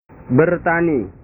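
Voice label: "bertani", Indonesian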